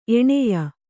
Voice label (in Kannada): "ಎಣೆಯ", Kannada; eṇeya; noun; a close companion.